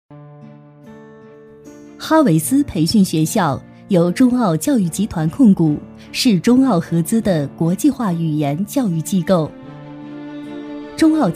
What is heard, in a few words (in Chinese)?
女 培训学校宣传